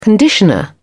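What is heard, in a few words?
"conditioner", British English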